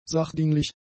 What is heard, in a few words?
"sachdienlich", german; adjectives